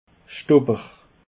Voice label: "schtopper", Alsace